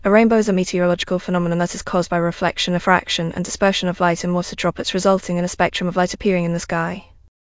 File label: speaker, en GB; piper voices; vctk